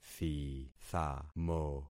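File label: "thithamo", concatenated syllables; Affixing experiment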